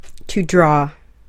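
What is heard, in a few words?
"to draw", English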